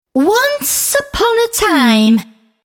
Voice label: "Once Upon A Time" Spoken, 'Once Upon A Time' spoken in high female voice.; Recorded Voice